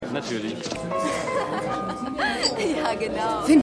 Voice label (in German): Frau; AusgerechnetAlaska